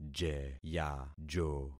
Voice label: "jeyajo", concat syllables; Affixing experiment